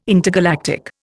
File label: "intergalactic", Indian